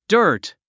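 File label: "dirt", English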